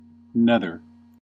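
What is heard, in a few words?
"nether", US English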